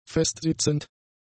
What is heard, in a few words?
"festsitzend", german; adjectives